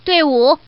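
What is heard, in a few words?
Woman, pair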